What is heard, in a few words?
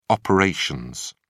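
"operations", British English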